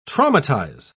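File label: "traumatise", British English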